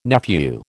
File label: "nephew", American